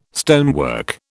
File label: "stonework", British